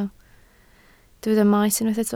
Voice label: "maes", Welsh